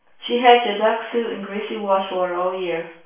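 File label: female reverberant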